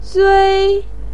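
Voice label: "zui1", Mandarin pinyin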